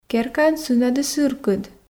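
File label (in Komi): керкаын сынӧдыс ыркыд, Komi, female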